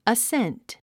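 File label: "ascent", English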